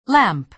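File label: "lamp", American English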